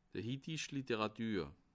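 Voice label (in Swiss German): "hittich", Bas Rhin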